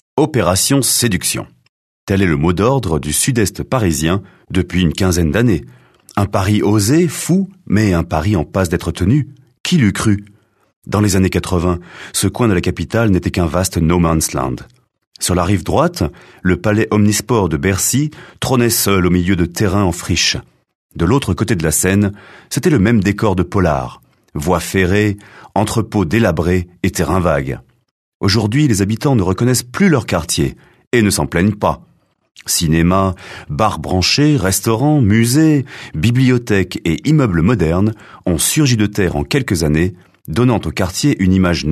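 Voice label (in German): French Kommentar Freundlich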